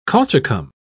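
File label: "colchicum", British English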